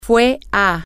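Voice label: she went to, Spanish, female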